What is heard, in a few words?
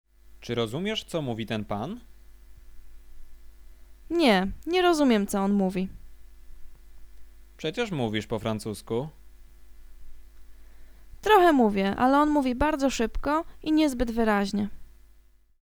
conversation; lektorek; firstyear